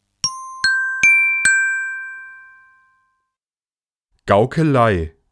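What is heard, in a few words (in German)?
"Gaukelei", German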